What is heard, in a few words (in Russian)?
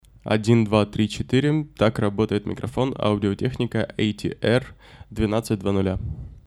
Audio-Technica ATR-1200 rech, Речь; Звучание Audio-Technica ATR-1200 — Речь; динамических кардиоидных микрофонона; Рассмотрим 4 бюджетных динамических кардиоидных микрофонона от Audio-Technica, линейки ATR